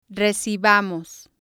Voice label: "recibamos", spanish

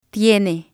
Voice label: "tiene", spanish

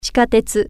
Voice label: "subway", Japanese

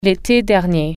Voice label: last summer, french

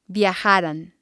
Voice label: "viajaran", Spanish

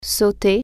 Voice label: jump verb, french

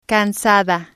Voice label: "cansada", Spanish

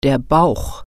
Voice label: "belly", German

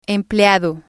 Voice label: "empleado", spanish

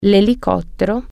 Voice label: helicopter, italian

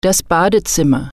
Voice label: "bathroom", german

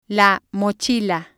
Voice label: "backpack" Spanish

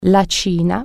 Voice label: china, Italian

